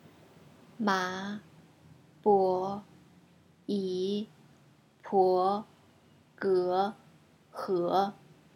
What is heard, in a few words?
free chinese learning third tone